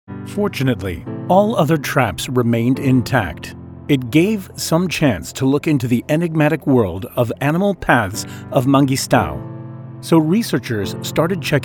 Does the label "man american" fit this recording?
Yes